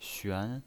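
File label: "xuan", pinyin voice, second tone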